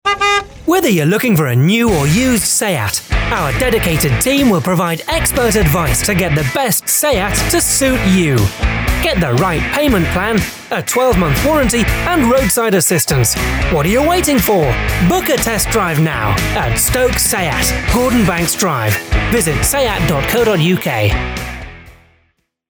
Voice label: male english light northern accent commercial; english northern accents; seat